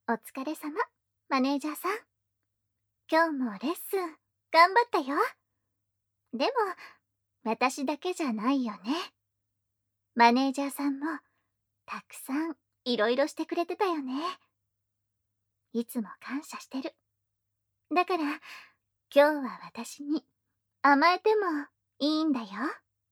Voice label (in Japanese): よしよしボイス SEなし; アラームボイス